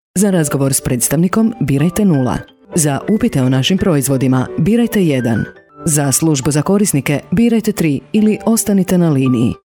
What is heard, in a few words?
female croatian voice over upbeat